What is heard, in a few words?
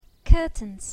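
"curtains", English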